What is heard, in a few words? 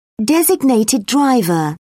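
"designated driver", British English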